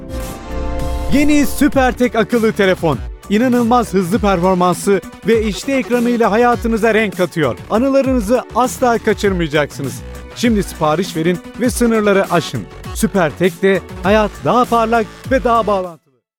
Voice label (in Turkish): reklam enerjik